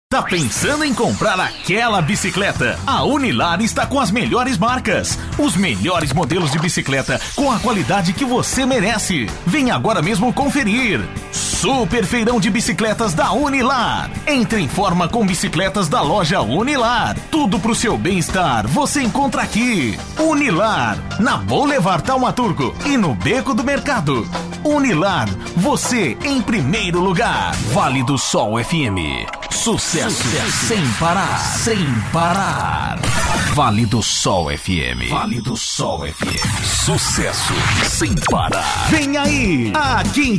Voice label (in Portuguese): locutor, Vozes